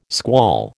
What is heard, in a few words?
"squall", American